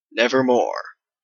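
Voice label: "nevermore", Canadian English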